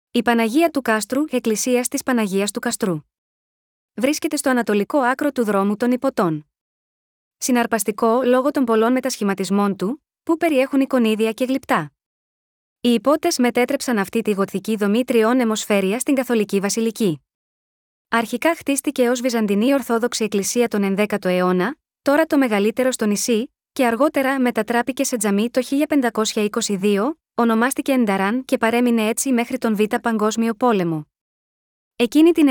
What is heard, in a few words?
Greek; Our Lady of the Castle Church Panagia tou Kastrou